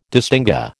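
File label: "distingué", American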